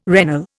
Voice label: "regnal", Indian